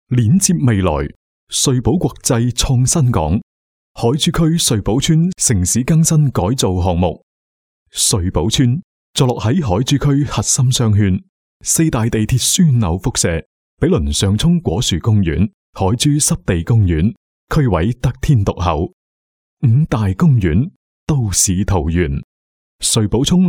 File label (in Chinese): 粤男 专题; 瑞宝村